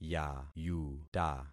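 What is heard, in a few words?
"yayuta", concatenated syllables; Affixing experiment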